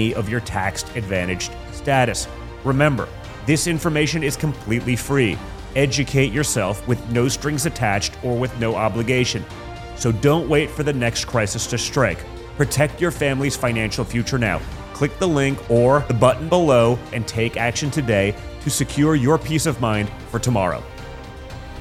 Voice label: commercial selling gold; Donald Trump, Jr.